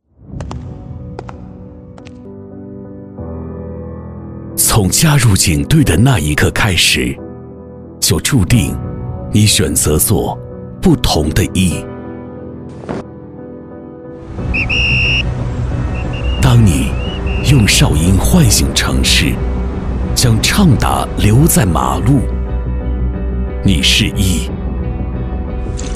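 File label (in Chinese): 男 公安机关宣传视频; 渭南市第三届公安机关双十佳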